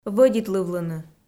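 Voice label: "вӧдитлывлыны", Komi, female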